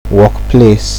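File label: "workplace", Nigerian Pidgin, male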